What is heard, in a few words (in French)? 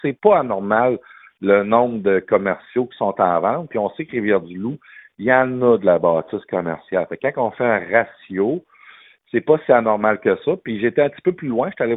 commercial; une quinzaine de bâtisses commerciales en vente à Rivière-du-Loup